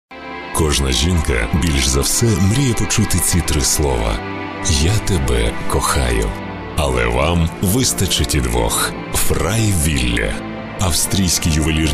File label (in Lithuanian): Ukrainieciu balsas, vyras